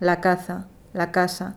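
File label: "la caza la casa", Spanish, Madrid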